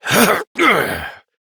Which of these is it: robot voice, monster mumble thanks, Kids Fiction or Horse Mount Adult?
Horse Mount Adult